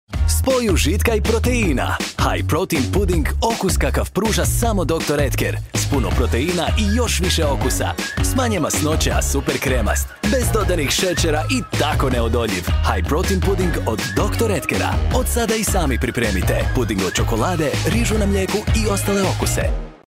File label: advert, croatian